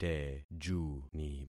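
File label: "tejuni", concatenated syllables; Affixing experiment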